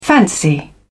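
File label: "fancy", British English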